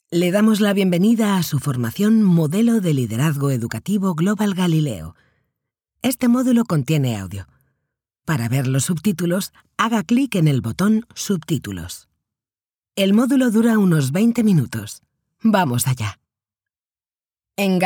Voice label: spanish e-learning